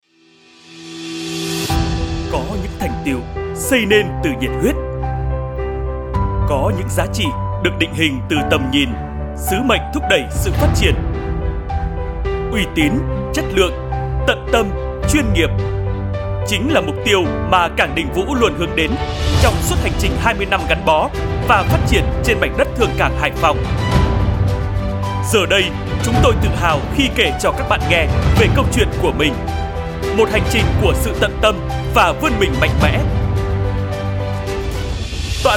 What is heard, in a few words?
North Male, Vietnamese; Cảng Dình Vũ; VNVO